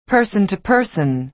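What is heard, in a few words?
"person-to-person", American English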